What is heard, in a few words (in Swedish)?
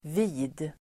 "vid", Swedish